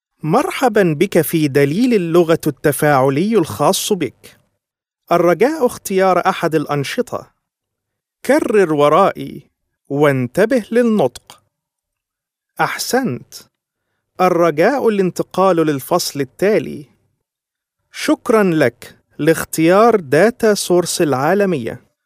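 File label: Arabic male corporate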